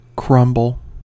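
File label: "crumble", American English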